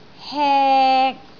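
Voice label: "hek3", Cantonese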